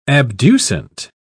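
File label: "abducent", British English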